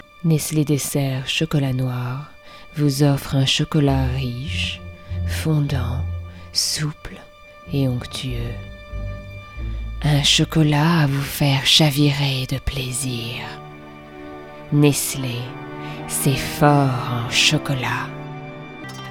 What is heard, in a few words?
French Commercial